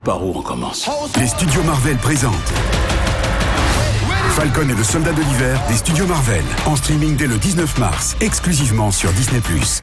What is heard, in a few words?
movie trailer vo warm dynamic upbeat; disney; falcon